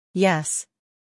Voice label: "yes", English